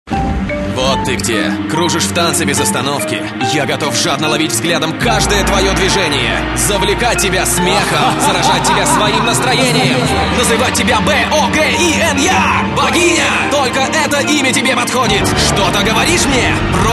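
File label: Russian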